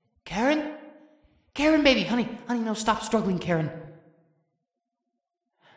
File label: Voice Acting; Five Nights at Ronald's